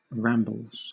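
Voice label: "rambles", English; pronunciations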